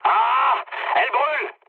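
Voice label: French on fire mood low; War Thunder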